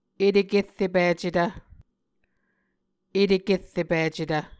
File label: "etekerrepicetv", Muscogee